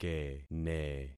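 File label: "kene", concatenated syllables; Affixing experiment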